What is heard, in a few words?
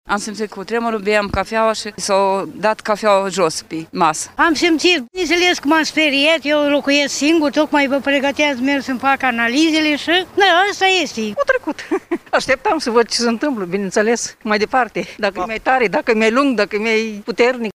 vox pop